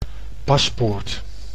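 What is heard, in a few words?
"paspoort", Dutch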